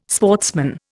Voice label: "sportsman", Indian